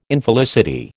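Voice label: "Infelicity", American English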